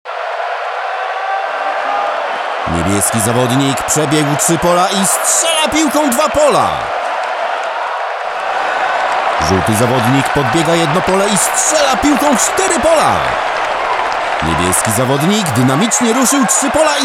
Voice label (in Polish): lektor do gry